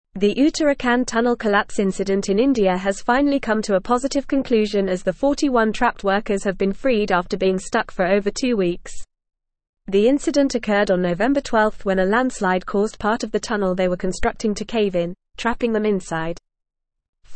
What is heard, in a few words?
English Advanced FAST Reading; English Newsroom; 41 Indian Workers Rescued After Being Trapped in Tunnel